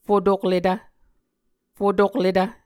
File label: "fotokletv", muscogee